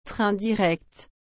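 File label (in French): "train direct", French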